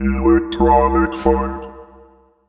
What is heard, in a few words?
Electronic Funk, robotic vox; 90s Sample CDs; Club Techno